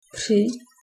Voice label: "three", czech